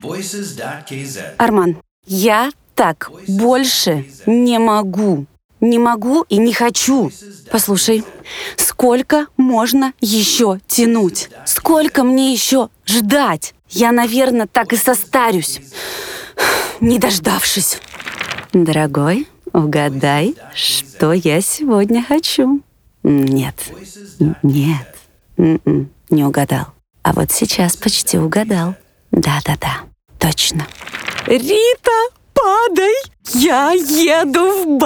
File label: rus